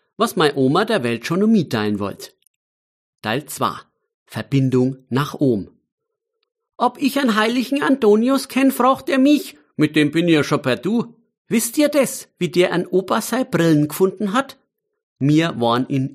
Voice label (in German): Mundart